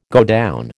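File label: "godown", American English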